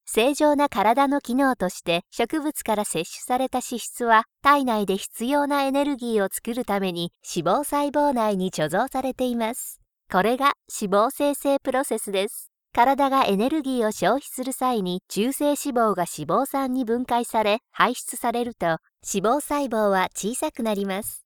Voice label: Educational video

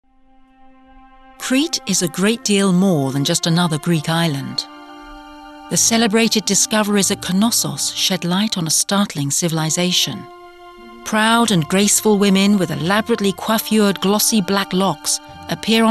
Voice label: Narrative

